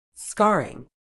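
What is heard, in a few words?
"scarring", British English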